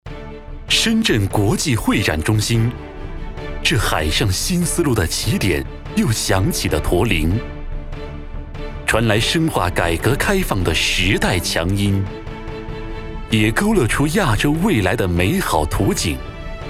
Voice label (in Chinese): 宣传 大气; 深圳国际会展中心